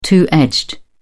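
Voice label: "two edged", English, British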